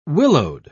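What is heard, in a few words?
"willowed", British English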